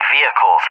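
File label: "vehicles" English, speech; Target; DCS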